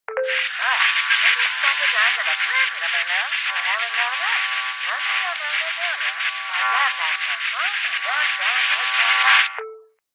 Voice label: Coalition Broadcast; Events; DynamicEuropa